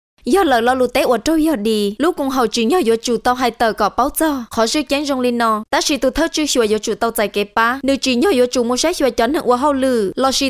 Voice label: Hmong